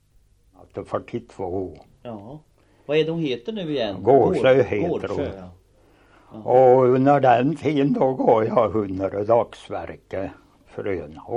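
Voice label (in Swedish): Oppeby Östergötland, Svenska sagor, dialekter; Folkminnen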